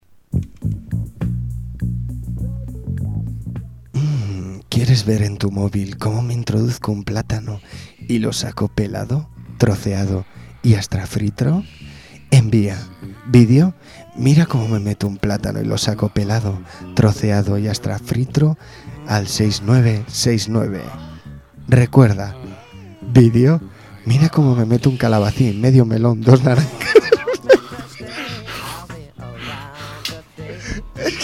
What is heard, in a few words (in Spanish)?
Publicidad, Toma falsa; el platano